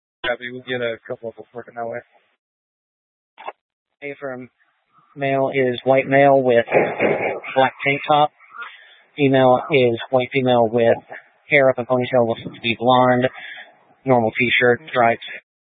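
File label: Incident Tac 3, Scanner audio recording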